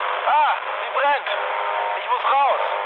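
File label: German on fire mood low, speech; War Thunder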